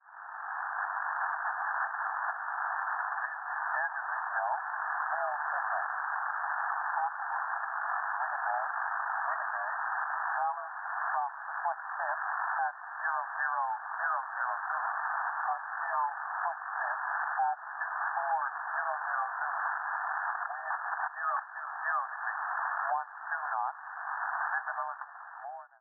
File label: Gander Volmet 6604 kHz; Perseus; Schwarzsee